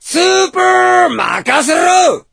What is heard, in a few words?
voice, dubbing